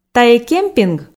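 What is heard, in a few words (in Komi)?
"тайӧ кемпинг", Komi, female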